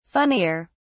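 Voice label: "funnier", British English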